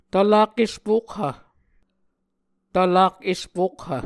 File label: "tvlak espokhv", Muscogee